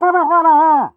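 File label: battle cry, French; Pyro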